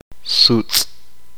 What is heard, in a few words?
"nube", Uspanteko